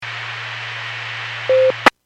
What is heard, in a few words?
Skywarn 146.67; Stevens Point